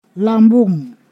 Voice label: "shirt", Ponosakan